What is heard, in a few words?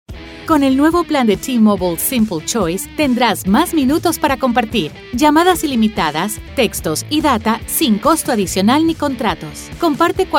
Upbeat